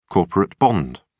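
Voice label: "corporate bond", British English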